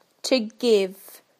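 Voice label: "to give", British English; PictureDictionary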